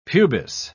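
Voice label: "pubis", British English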